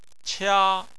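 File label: "qia", Chinese, tone 1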